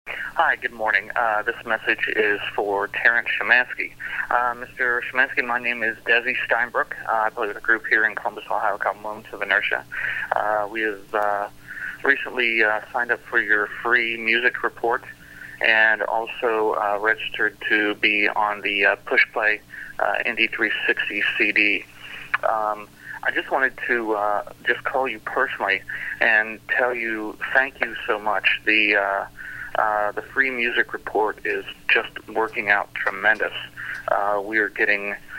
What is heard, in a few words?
voicemail